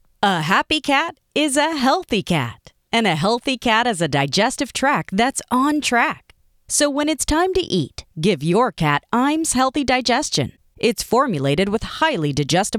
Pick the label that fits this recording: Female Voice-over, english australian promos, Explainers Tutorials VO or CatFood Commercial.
CatFood Commercial